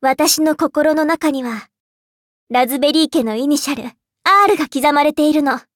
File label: upgrade, ja; cookie run